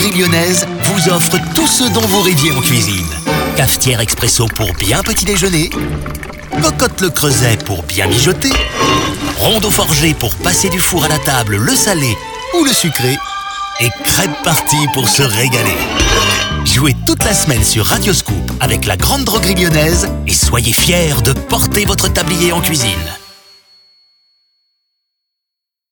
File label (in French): promo cadeaux; grande droguerie lyonnaise